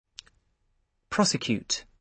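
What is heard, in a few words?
"prosecute", British English pronunciation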